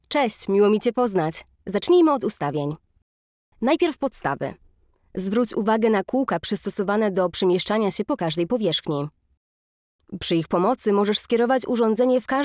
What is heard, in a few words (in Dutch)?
Pools vrouw